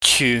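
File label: "chyu", Cantonese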